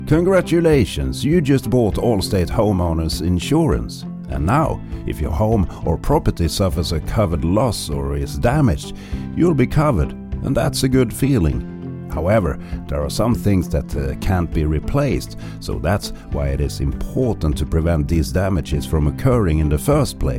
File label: corporate English